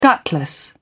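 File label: "gutless", British English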